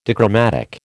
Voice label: "dichromatic", American